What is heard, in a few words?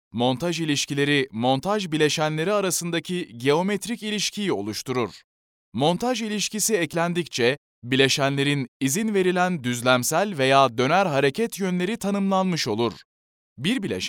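Turkish, male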